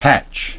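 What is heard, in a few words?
"hatch", English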